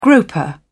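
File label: "groper", British English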